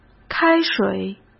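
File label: "开水", Mandarin Chinese; chinese flashcards; hsk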